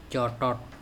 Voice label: "cOToT" bird, a kind of, andamanese